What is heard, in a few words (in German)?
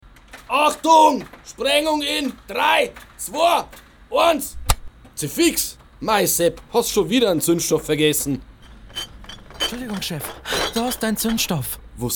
Werbung; Irlbacher